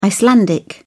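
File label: "icelandic", British English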